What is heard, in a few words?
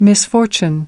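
"Misfortune", English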